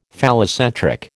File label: "phallocentric", American